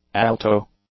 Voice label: "alto", English; nouns